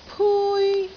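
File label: "pui1", Cantonese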